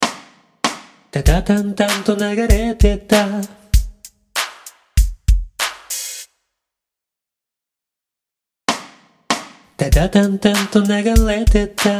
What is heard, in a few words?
voice commentary